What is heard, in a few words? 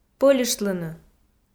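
"пӧльыштлыны", Komi, female; kpv audio dict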